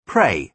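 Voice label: "pray", British English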